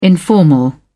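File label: "informal", British English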